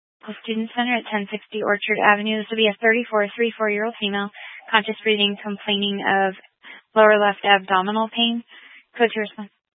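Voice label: Fire Dispatch, scanner audio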